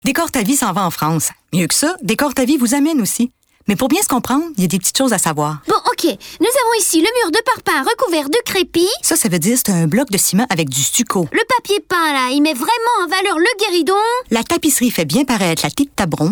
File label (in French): Publicité; Décor ta vie